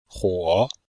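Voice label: "huo3", Chinese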